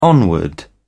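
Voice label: "onward", British English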